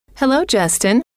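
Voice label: hello, female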